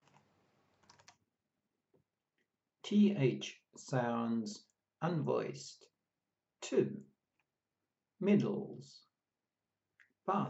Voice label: Th sounds unvoiced, pronunciation of australian english